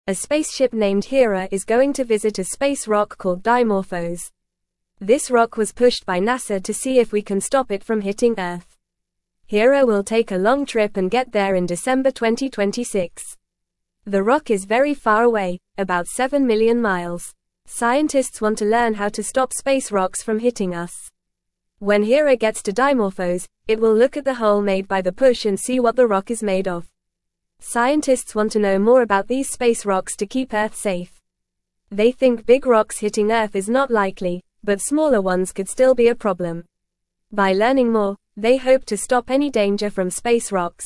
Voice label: English Beginner FAST Reading; Spaceship Hera to Visit Space Rock Dimorphos in 2026